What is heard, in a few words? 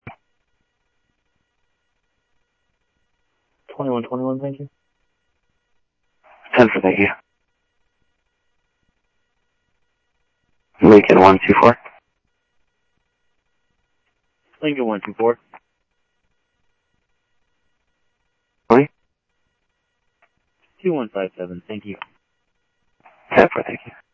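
Sheriff East County, scanner audio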